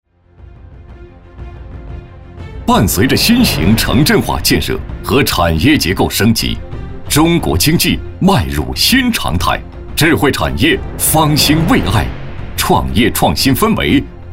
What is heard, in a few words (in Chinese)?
男 有气势; 快速发展的企业